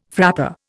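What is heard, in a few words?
"frappé", Indian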